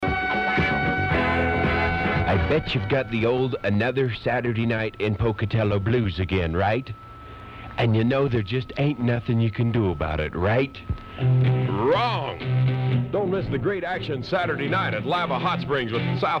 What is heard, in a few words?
COTTONWOOD Radio Promo